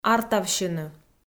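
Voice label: "артавсьыны", Komi-Zyrian, female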